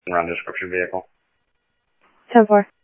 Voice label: PD Police, scanner audio; ThompsonPeakHigh